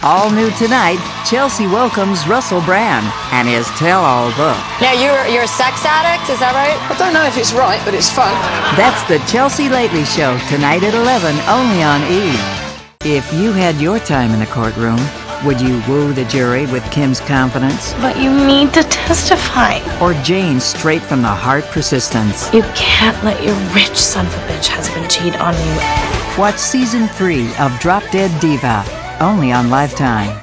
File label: TV Promos